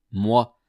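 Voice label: "moi", French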